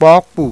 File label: "POPU", Yoruba; Purple